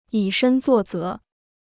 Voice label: "以身作则", Chinese

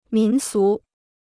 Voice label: "民俗", Chinese

